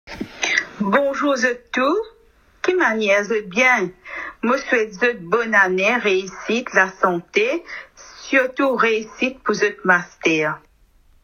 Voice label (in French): Enregistrement créole